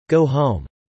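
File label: "go home" spoken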